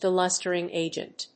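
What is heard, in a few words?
"DELUSTERING AGENT", English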